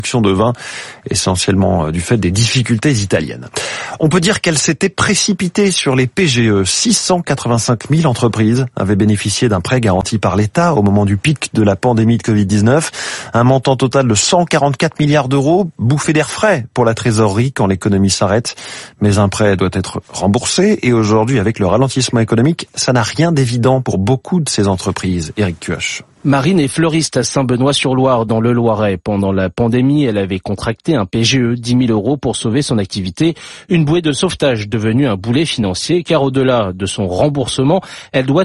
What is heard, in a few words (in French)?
Interview Radio Classique